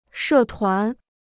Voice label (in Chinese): "社团", Chinese